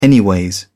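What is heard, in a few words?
"anyways", British English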